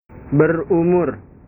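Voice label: "berumur", Indonesian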